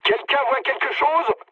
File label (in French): chat, speech fr